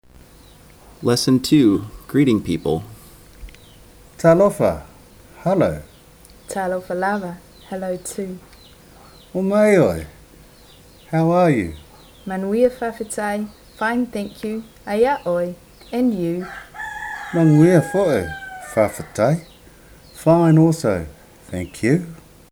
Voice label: greeting people, samoan and english; lesson 02